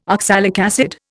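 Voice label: "oxalic acid", Indian English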